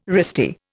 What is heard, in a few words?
"wristy", Indian